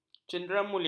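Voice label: "cenderamulia", Malay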